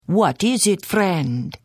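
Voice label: Hello, Khajiit female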